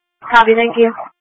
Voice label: EMS Dispatch